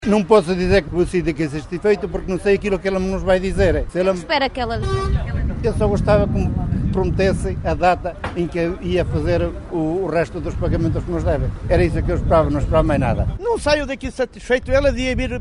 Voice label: vox pop; agricultores